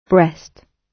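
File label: "breast", British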